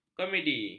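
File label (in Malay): "komedi", Malay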